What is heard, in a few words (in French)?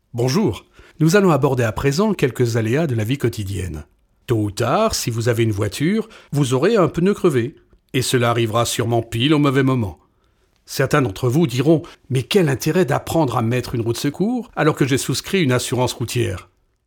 PUB E LEARNING